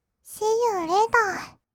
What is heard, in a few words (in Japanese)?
"シーユーレーター", kawaii voice; 挨拶